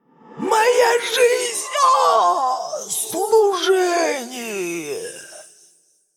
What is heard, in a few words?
Female Undead Death